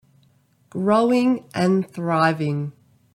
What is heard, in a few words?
Growing and thriving, en au